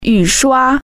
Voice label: "wipers", Chinese